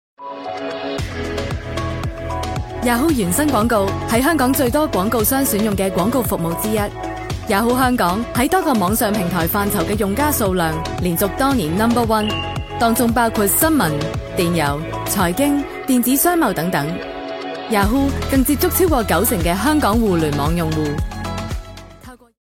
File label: chinese cantonese corporate videos